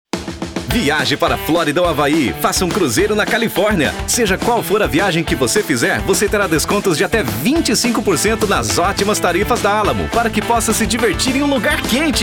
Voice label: Voz Alegre; Alamo

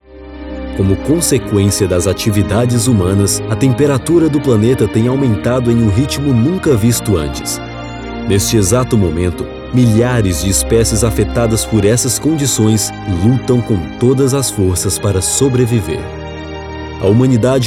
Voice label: Narração

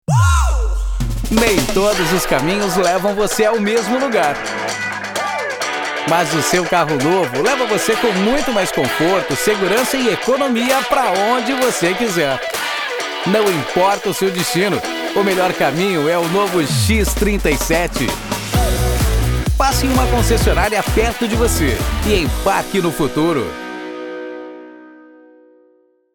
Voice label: VAREJO JOVEM

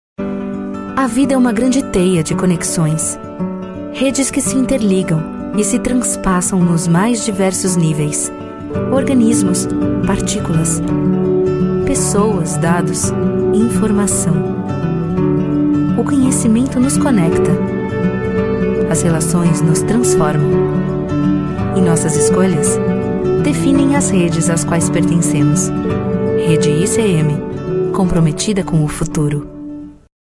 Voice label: Locução; Rede ICM

